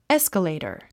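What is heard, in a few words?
"escalator", English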